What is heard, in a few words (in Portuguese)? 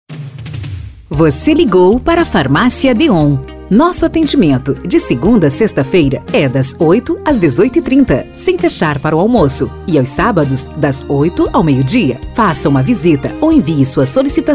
ESPERA FARMACIA, espera telefonica; DEHON